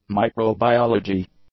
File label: "microbiology", English